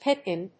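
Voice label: "Pipin", English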